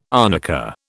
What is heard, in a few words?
"arnica", British